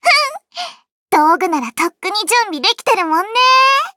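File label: start, Japanese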